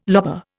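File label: "lobar", Indian English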